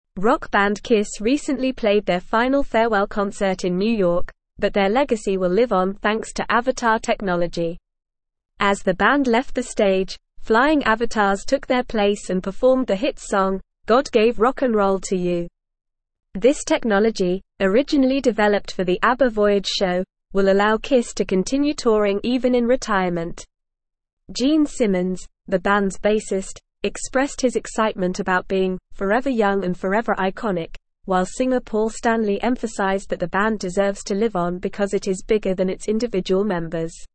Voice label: English Newsroom Advanced NORMAL Reading